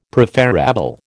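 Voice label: "preferable", American English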